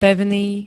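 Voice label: "pevný", Slovak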